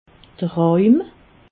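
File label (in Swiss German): "roim", Alsatian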